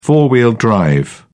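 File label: "four-wheel drive", English, British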